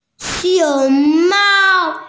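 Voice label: "panda", hearing impaired; xiong2mao1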